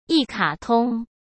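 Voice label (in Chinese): "一卡通", Mandarin Chinese; hsk; chinese-flashcards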